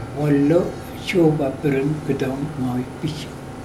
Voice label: the man will not give the pig to me, mokilese